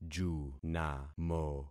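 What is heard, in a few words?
"junamo", concatenated syllables; Affixing experiment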